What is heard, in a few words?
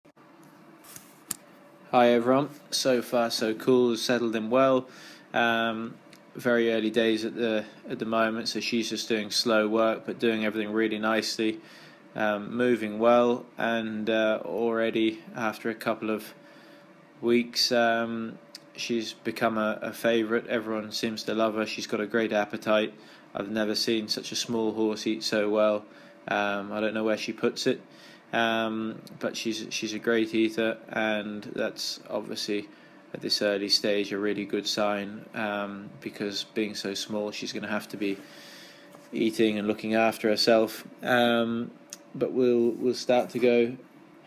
voice note; So Far Sokool NZ